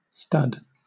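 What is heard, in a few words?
"stud", British English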